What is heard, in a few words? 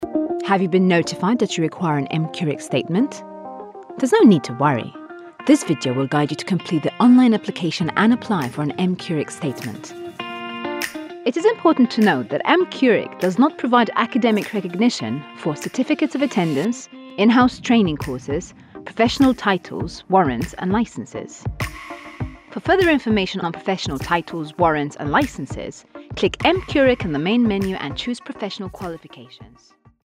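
Maltese English, female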